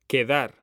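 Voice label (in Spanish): "QUEDAR", Spanish; mekanta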